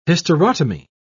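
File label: "hysterotomy", British English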